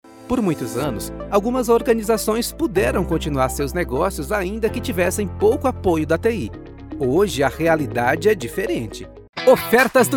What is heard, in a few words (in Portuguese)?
locutor